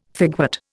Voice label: "figwort", Indian